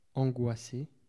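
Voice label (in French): "angoisse" French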